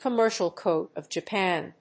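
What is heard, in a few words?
"commercial code of japan", English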